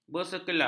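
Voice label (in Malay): "bersela", Malay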